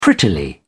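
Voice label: "prettily", British English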